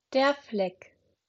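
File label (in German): "Fleck", German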